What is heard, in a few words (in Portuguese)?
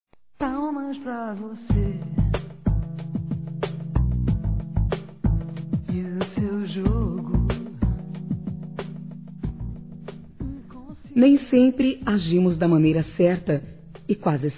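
Desculpás neutra, Telemensagens, female; Telemensagens 2017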